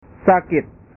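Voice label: "sakit", Indonesian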